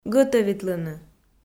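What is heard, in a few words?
"гӧтӧвитлыны", Komi, female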